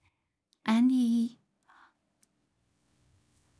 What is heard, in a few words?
"Ali", دختر; دختر که میگه علی